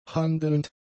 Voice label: "handelnd", German; adjectives